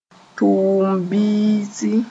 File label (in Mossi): "tʋʋm biisi", moore; production